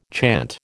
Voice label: "chant", American